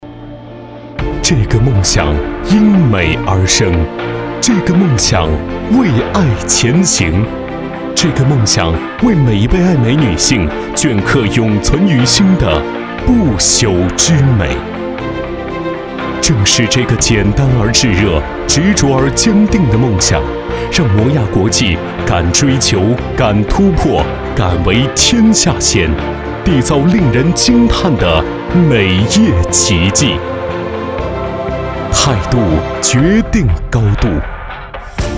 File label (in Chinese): 宣传片 企业 时尚; 魔娅国际